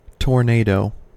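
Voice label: "tornado", US English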